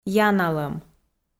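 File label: "яналӧм", Komi-Zyrian, female; kpv audio dict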